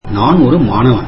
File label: tamil Im a student